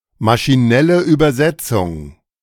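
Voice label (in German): maschinelle Übersetzung, German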